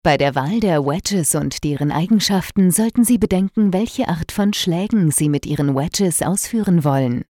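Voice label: German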